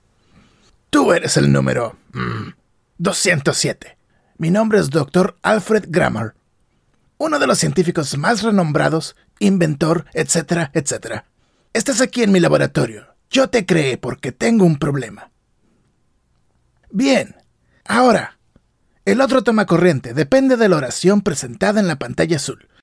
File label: Cartoon Spanish